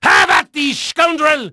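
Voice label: Dutch male, voice; Pirates Of The Caribbean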